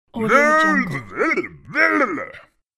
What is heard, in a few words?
caveman complaining loudly; cartoon